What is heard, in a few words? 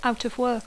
"out of work", English; Dacco